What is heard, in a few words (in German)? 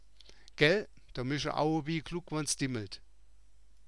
aue wie glugg, mundart; Gäll, dòò mische Aue wie e Glugg, wònn's dimmeld!; Jetzt bist Du überrascht, nicht wahr?